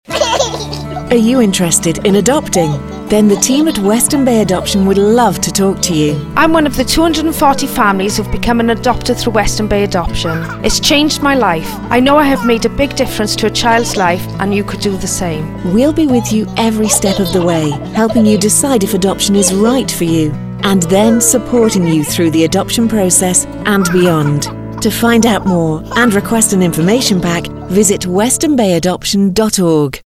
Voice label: English; Western Bay